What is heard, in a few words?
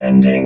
"ending", robot vox